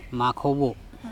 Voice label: "mAkHobo", andamanese; our people

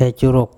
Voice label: "Ecurok", Andamanese; knee

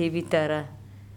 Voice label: "ebitara", andamanese; back of the head

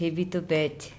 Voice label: ebitobEc private hair, Andamanese

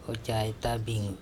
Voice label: "u cayta biN", andamanese

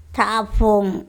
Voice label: my mouth THapHong, Andamanese

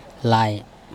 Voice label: "lae", Andamanese

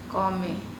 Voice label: "kOme", andamanese; do not know

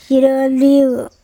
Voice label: cold, very Siroliu, andamanese

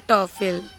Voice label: "TopHel", Andamanese

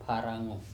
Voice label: "pHaraNo", andamanese

